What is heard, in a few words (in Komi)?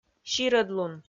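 "сирӧдлун", Komi, female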